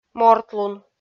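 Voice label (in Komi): "мортлун", Komi, female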